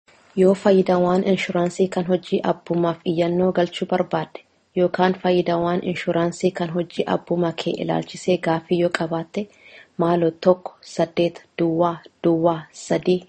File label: oromo